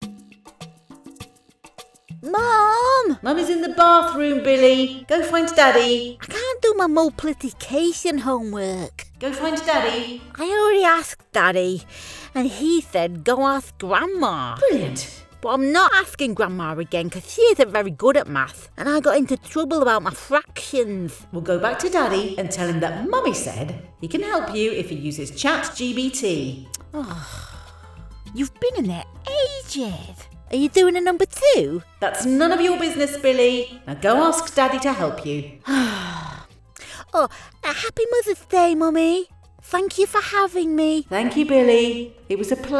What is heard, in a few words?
Comic Scene British; Mum's Sanctuary; British Voice Actor